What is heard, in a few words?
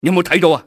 did you see, cantonese male; XBOX; enemy